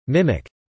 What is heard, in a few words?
"mimic", English